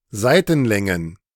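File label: "Seitenlängen", German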